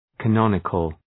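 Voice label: "canonical", English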